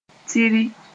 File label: "tiri", Moore; stop abruptly